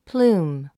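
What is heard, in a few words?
"plume", English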